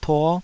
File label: "tuo5", taiwanese